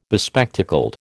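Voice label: "bespectacled", American English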